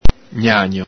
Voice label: "gnagno", Venetian

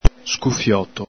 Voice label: "scufioto", Veneto

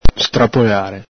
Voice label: "strapolare", Veneto

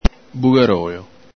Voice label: "bugarolo", Veneto

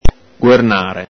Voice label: "guernàre", Venetian

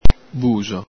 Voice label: "buxo", Veneto

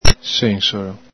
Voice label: "sensolo", Veneto

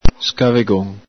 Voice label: "scavegon", Veneto

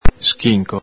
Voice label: "schinco", Veneto

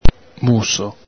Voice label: "muso", Venetian

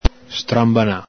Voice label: "stranbana", Veneto

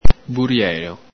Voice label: "burielo", Veneto

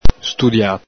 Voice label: "studia", Veneto

